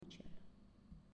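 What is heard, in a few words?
"Cielo", Italian, female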